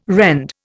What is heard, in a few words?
"rend", Indian